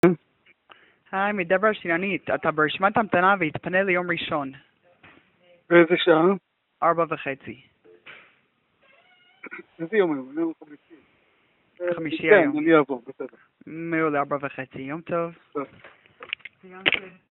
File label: call recording